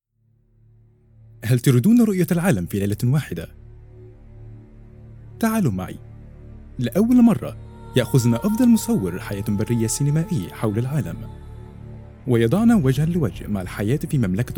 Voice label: PROMO; NATIONAL GEOGRAHIC ABU DAHBI

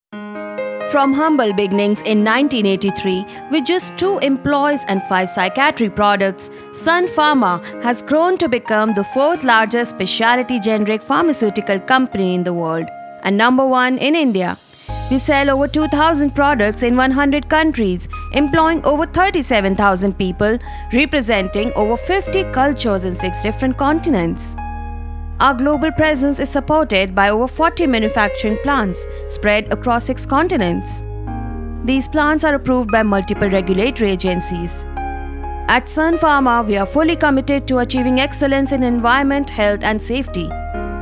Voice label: Eng; SunPharma

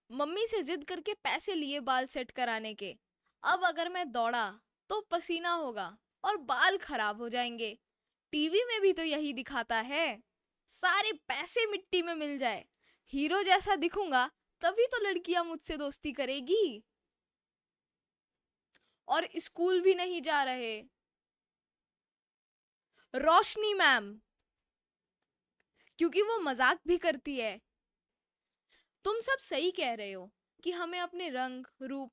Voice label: hindi, child; Delhi, India

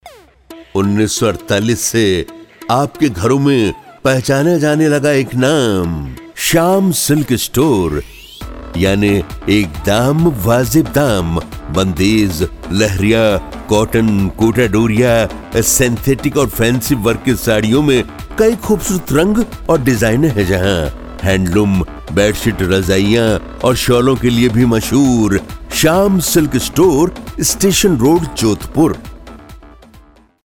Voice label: radio

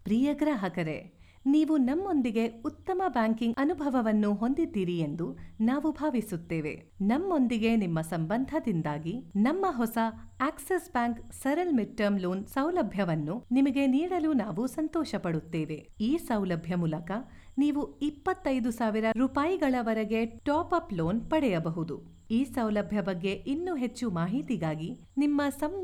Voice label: explainer, Kannada

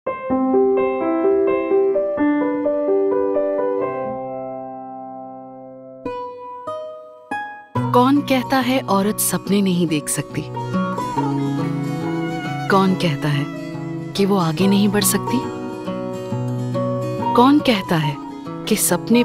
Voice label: promo hindi

